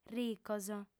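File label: "ricos", Sardinian female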